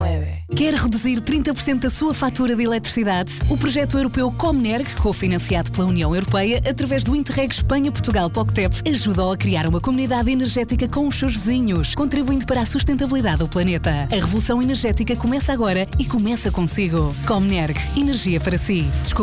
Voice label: propaganda radio Portugal; comenerg; proxectos europeos